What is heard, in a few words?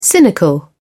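"cynical", British English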